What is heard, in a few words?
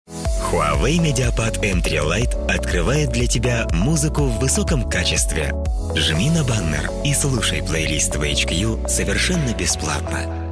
russian commercial; russian voice over artists